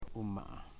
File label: "son", Foe